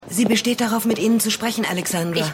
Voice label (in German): Assistentin, Stimmproben